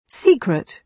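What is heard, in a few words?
"secret", British English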